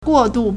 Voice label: "guo4du4", Chinese